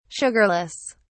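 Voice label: "sugarless", British English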